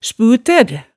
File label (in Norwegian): "sputær", hemsedalsmaalet